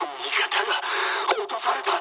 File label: aircraft destroyed mood low, speech jp; War Thunder